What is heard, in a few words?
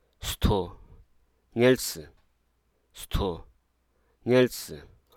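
Hruso Aka; ceiling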